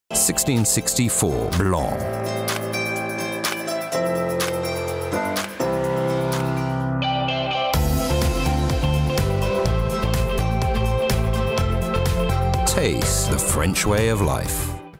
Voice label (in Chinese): 英語男聲; Kronenbourg 1664 BLANC